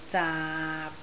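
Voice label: "zaap6" Cantonese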